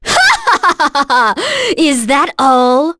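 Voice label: Vox Victory, voices heroes English; Maria; kings raid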